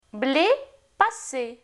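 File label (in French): blé — passer, French; Phonetique; Voyelles; Lingvo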